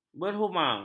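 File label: "berhuma", Malay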